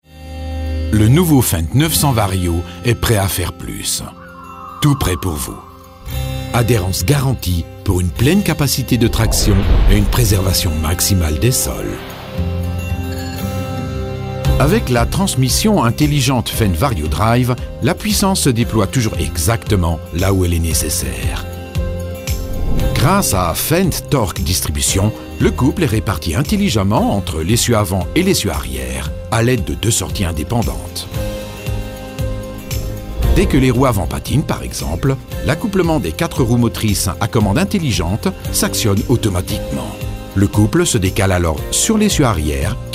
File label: Corporate; FENDT